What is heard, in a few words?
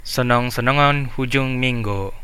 have a nice weekend, central dusun